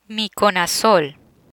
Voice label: "Miconazol", Spanish

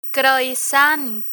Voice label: "croissant", Spanish; travel menu; learn spanish

